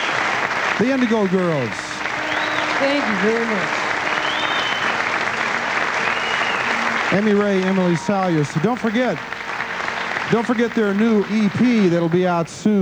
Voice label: announcer